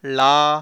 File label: "lla", consonants